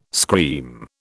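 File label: "scream", British English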